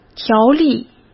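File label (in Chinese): "条例", Mandarin Chinese; chinese flashcards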